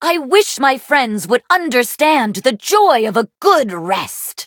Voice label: levelup, en; cookie run